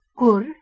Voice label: "kur", Lithuanian